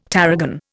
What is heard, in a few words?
"tarragon", Indian